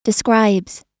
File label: "describes", us